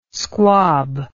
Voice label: "squab", English